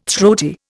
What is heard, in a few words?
"throaty", Indian